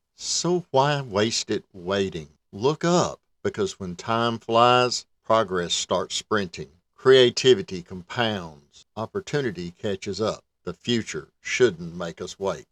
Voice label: Brand Video Voice Sample; Zipline